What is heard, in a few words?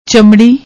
"chamdi", Punjabi; ਚਮੜੀ